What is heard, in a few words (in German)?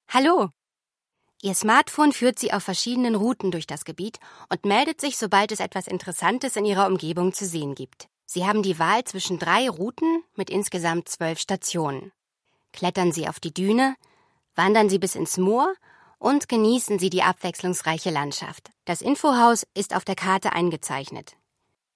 AUDIOGUIDE KOMMENTAR Naturschutzgebiet; LOFT VOICES